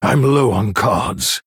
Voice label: Male Human Low Cards